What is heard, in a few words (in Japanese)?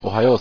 おはようさん, 大阪弁